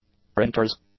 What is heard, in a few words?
"printer s", English; nouns